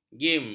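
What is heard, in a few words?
"gim", Malay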